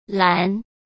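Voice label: "栏", Mandarin Chinese; hsk; chinese-flashcards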